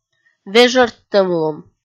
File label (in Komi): "вежӧрттӧмлун" kpv f